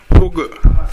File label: "horogu", minamiyamagata